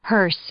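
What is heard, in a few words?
"hearse", English; noun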